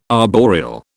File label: "arboreal", British